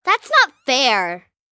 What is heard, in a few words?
Whine Not Fair; Whining; Voice Box